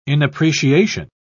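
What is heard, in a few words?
"inappreciation", British English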